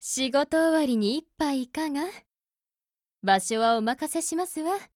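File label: LOADING, Japanese; P10C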